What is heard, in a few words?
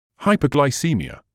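"hyperglycaemia", British English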